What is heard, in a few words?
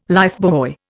"lifebuoy", Indian